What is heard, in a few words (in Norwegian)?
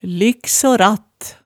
"likso-ratt", hemsedalsmaalet tale